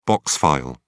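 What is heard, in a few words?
"box file", English, British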